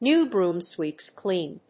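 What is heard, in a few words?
"new broom sweeps clean", English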